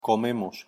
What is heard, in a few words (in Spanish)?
"comemos", pronunciation es